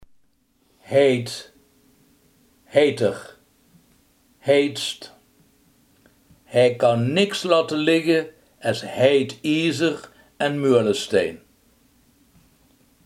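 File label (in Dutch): heet, Limburgse gesproken woordenboeken; heet, heit (heiter, heitst)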